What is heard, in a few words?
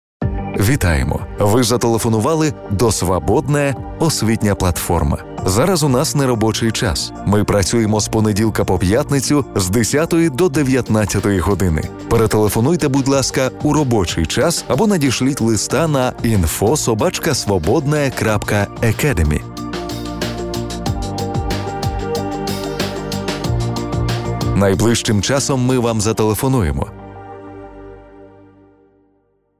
IVR